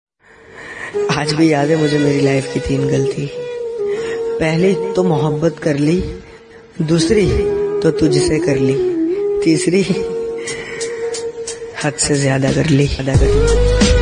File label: Shayari Ringtone